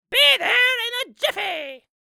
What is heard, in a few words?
troll voice be there in a jiffy dry; SpillAudio; Character Troll